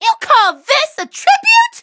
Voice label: levelup, en; cookierun

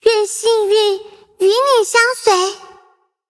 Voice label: loading, zh-hant; Cookie Run

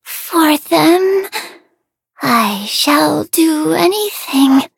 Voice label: touch, en; Cookie Run

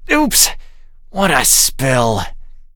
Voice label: defeat, en; Cookie Run

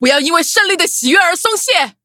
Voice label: victory, zh-hant

